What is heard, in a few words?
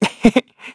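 Vox Happy, Korean; Evan; kingsraid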